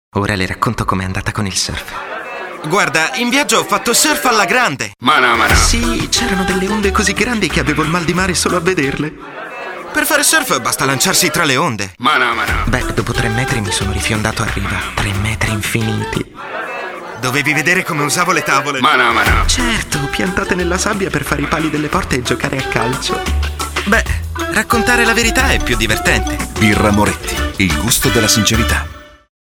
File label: surf radio; moretti